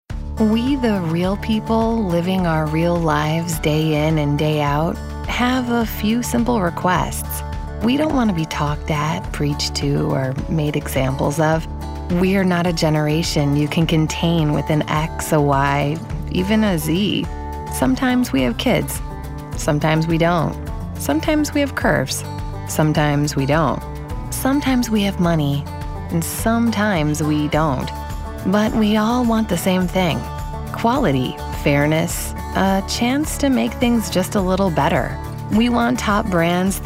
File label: Conversational